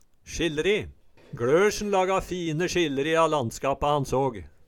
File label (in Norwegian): "sjillri", Numedalsmål